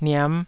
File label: "niam5", taiwanese